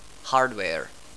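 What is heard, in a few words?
"hardware", English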